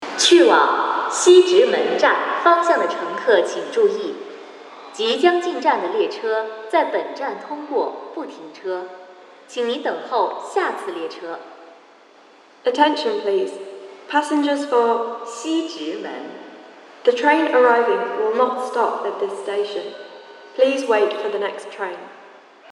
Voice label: 13号线通过不停车广播

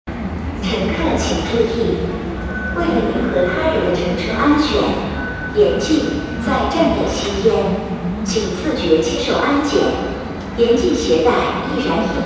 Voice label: 清河站内宣教广播

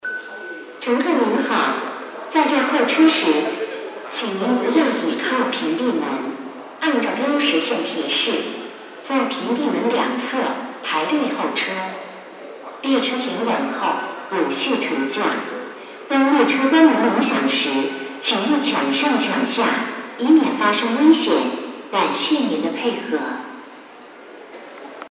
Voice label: 东直门 特殊站台提示

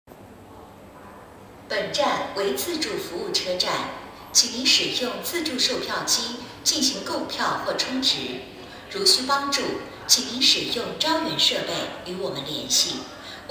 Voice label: 运四广播本站为自助服务车站

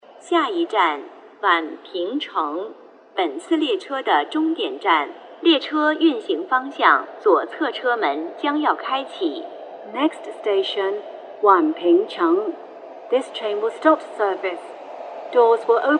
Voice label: 16号线 宛平城终点预报到站

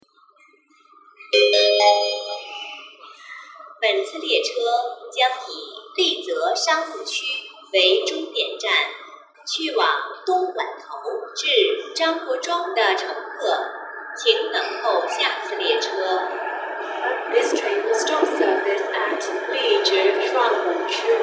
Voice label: 14号线 丽泽商务区清车

